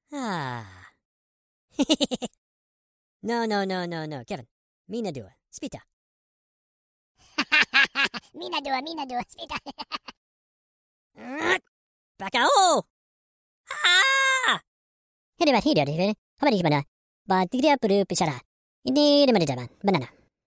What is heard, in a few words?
minion voice